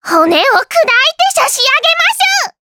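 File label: Vox Skill Japanese; Taily; kings raid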